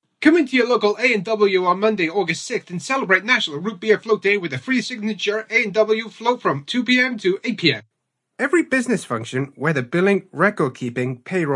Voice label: Voice-Over Showreel